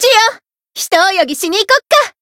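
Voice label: win, Japanese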